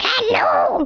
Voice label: "Excellent", Danish